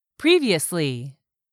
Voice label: "previously", english; 3000 words